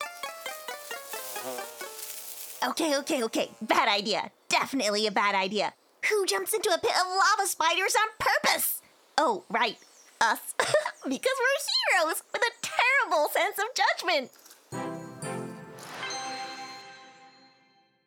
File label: foreigner; やんちゃな男の子